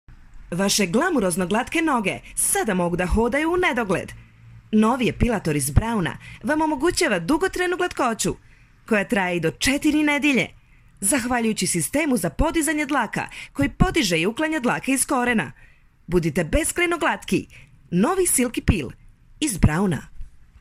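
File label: SR General, Serbian; GoLocalise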